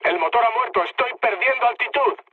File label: damaged engine, mood low, speech; War Thunder